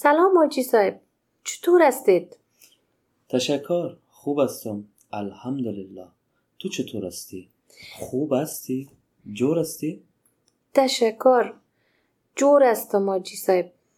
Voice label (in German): Dialog, Dari-Persisch